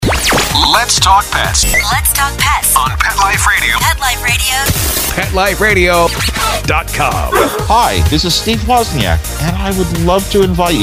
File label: Generic Sweeper; PetExpo